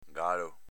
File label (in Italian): "galo", dialetto veneto; s.m. gallo.